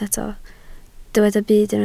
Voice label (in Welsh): "byd", Welsh